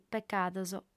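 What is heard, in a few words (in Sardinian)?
"pecados", female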